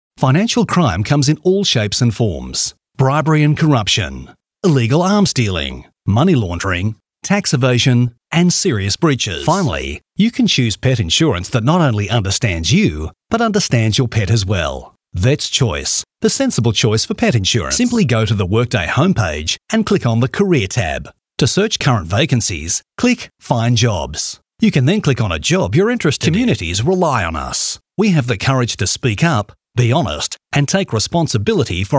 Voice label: Corporate